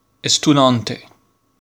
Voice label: He stunante, corse

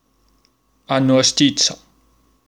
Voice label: Anu a stizza, corse

